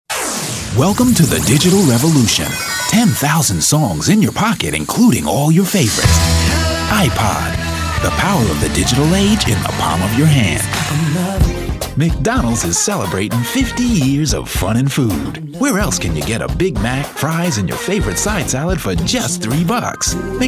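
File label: Commercial, real people male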